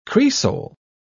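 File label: "cresol", British English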